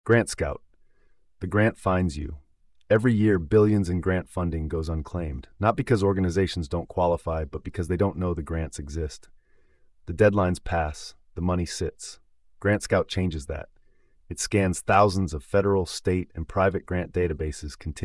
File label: narration